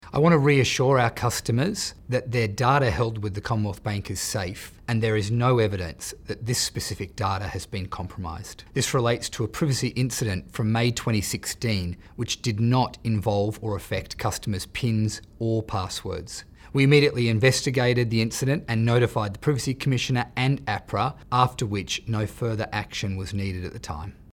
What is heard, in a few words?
radio grab; customer data incident